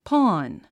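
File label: "pawn", English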